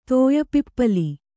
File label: "ತೋಯಿಪಿಪ್ಪಲು", Kannada